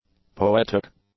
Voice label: "poetic", english; adjectives